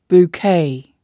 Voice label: "bouquet", spoken